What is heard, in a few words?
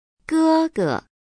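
"gege", Chinese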